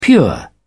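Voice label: "pure", British English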